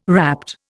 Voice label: "rapt", Indian

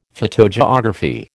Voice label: "phytogeography", American

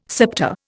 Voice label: "septa", Indian

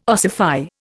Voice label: "ossify", Indian

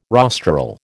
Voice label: "rostral", American

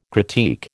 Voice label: "critique", American

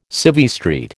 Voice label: "Civvy Street", American

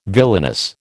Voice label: "villainous", American

